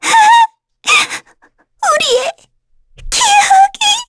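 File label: Vox Dead, Korean; Lavril; kingsraid; voices heroes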